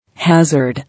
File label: "Hazard", English